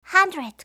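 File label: "one hundred", English; eng suuji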